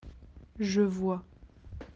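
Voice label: "voir", French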